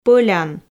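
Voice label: "пӧлян", Komi, female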